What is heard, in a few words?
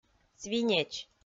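"свинеч", Komi, female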